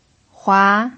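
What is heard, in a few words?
"hua", second tone, Mandarin Chinese pinyin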